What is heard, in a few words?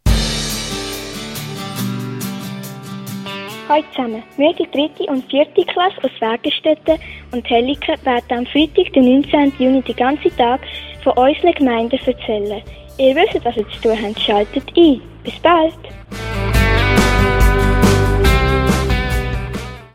Jingle; Hellikon